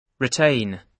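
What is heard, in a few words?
"retain", British English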